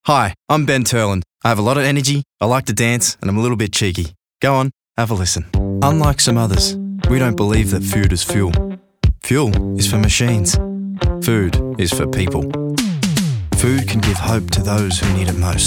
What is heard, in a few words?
VO Commerical